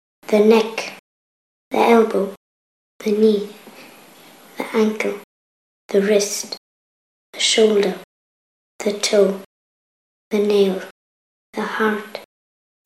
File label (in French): anglais lecon body